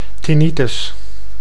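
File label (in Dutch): "tinnitus", Dutch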